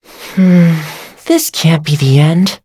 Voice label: defeat, en; Cookie Run